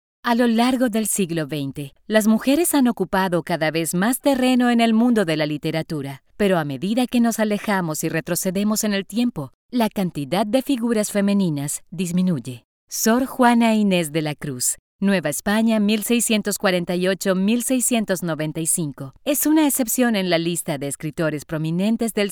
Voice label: spanish latin american narration